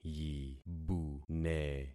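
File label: "yibune", concatenated syllables; Affixing experiment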